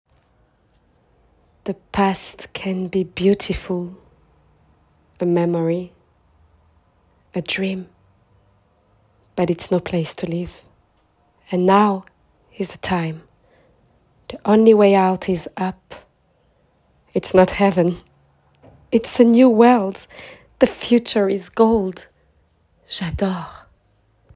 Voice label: French accent

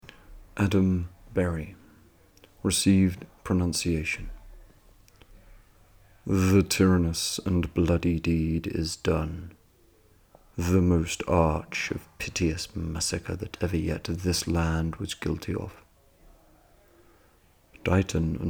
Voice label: RP

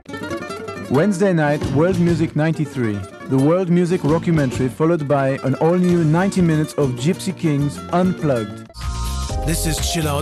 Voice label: French accent

